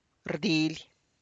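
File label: tlacochahuaya, Zapotec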